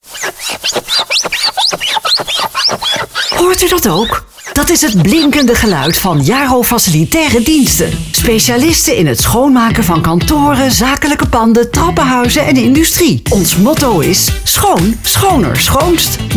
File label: commercial